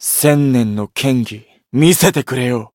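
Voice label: variable chant, Japanese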